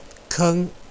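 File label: "keng1", Mandarin Chinese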